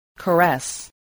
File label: "caress", spelling